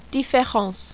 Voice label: "difference", French